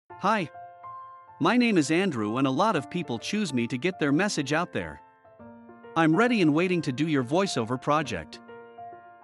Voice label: Music Voice Over